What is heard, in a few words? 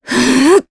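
Vox Casting, Japanese; Maria; kingsraid; voices heroes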